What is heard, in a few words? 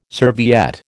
"serviette", American